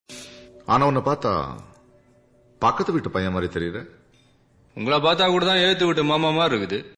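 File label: Comedy Dialogue Ringtone, Tamil; Vijay; Tamil Ringtones; 2009 Tamil Hit Ringtones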